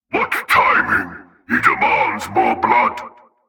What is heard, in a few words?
Male Alert Line; NPC; Heist Cultist Spotted